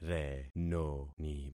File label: "renoni", concat syllables; Affixing experiment